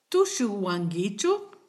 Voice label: dance, kichwa